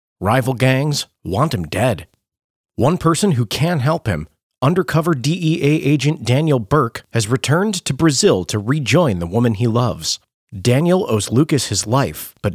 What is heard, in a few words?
Crime Thriller Audiobook; Journeyman Drug Lord